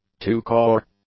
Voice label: "two-core", English; adjectives

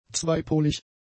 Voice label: "zweipolig", German; adjectives

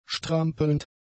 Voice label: "strampelnd", German; adjectives

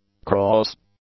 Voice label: "cross", English; adjectives

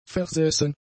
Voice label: "versessen", German; adjectives

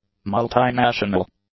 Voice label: "multinational", English; adjectives

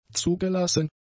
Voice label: "zugelassen", German; adjectives

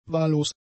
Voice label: "wahllos", German; adjectives

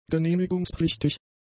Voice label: "genehmigungspflichtig", German; adjectives

